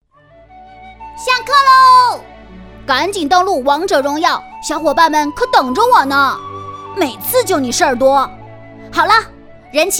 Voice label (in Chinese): 童声集锦男女童